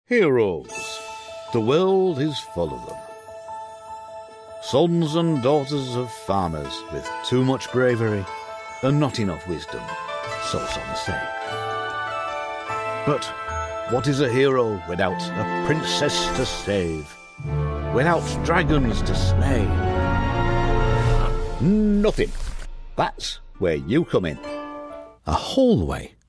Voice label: Narration Dramatic